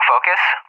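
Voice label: "Focus", English speech; AWACS; Callsign; DCS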